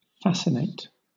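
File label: "fascinate", British English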